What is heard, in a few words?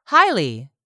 "HIGHLY", english; 3000 words